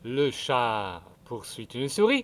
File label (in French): chat